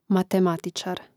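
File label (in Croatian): "matematicar", Croatian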